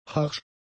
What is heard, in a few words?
"harsch", German; adjectives